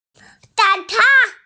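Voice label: "zao3can1", Mandarin Chinese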